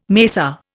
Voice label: "macer", Indian

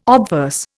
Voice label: "obverse", Indian

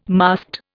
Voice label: "masked", Indian

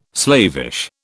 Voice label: "slavish", British

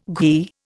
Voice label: "ghee", Indian

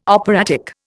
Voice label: "operatic", Indian

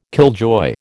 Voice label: "killjoy", American

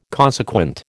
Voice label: "consequent", American

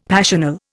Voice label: "passional", Indian; Dictionary

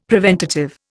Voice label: "preventative", Indian; Dictionary

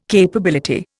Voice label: "capability", Indian

